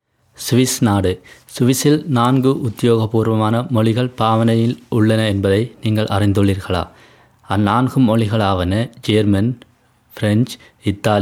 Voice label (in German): tamil; schweiz